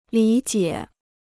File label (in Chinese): "理解", Chinese